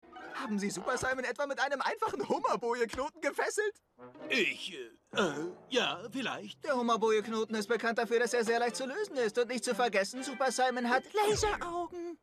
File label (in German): Synchron Toon; Marty